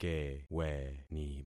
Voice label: "keweni", concat syllables; Affixing experiment

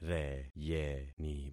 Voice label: "reyeni", concat syllables; Affixing experiment

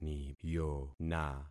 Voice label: "niyona", concat syllables; Affixing experiment

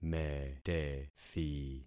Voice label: "metethi", concat syllables; Affixing experiment

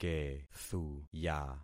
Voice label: "kethuya", concat syllables; Affixing experiment

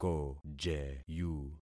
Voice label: "kojeyu", concat syllables; Affixing experiment

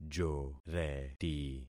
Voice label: "joreti", concat syllables; Affixing experiment

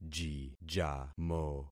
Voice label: "jijamo", concat syllables; Affixing experiment

